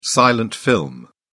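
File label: "silent film", British English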